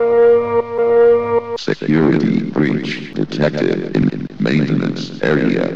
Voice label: announcement; svencoop; st mission; sector19